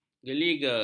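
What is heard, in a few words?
"geliga", Malay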